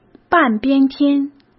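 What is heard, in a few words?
"半边天", Mandarin Chinese; hsk; chinese-flashcards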